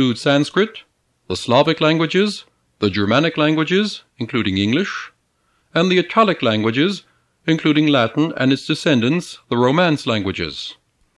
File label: Greek Pronunciation Sample; Bolchazy